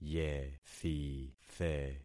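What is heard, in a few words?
"yethithe", concatenated syllables; Affixing experiment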